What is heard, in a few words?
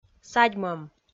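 "садьмӧм", Komi, female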